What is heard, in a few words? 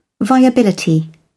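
"viability", British English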